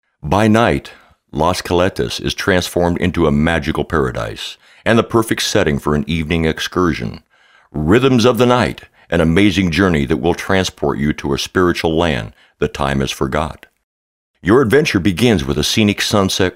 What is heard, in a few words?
voiceover